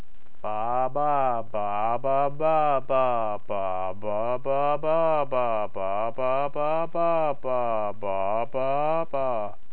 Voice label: real Speech